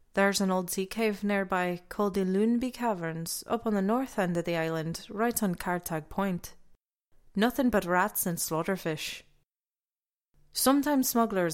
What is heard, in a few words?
breton, voice auditions